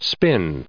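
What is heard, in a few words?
"spin", English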